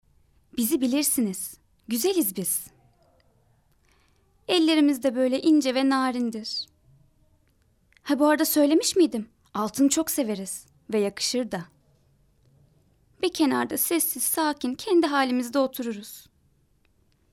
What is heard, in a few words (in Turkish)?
reklam